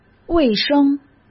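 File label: "卫生", Mandarin; chinese-flashcards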